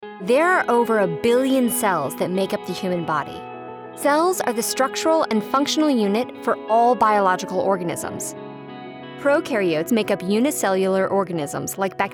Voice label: women elearning; Millenial, lively with a little edge, encouragingly authoritative, haute-couture confidence and an eloquent finish.